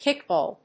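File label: "kickball", English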